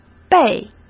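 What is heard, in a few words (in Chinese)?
"辈", Mandarin Chinese; chinese flashcards; hsk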